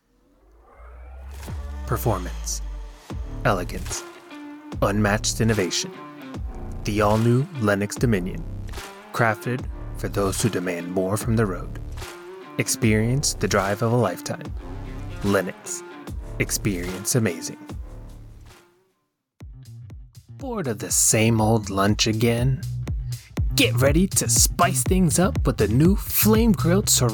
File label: Commercial